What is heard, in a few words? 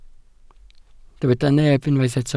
"neb", Welsh